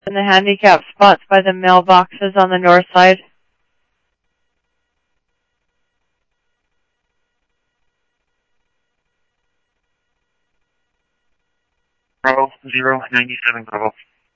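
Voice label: Mesa Simulcast Police Department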